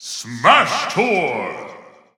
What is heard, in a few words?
announcer smash tour us; Ssb wiiu